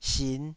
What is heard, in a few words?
"sin5", taiwanese Syllables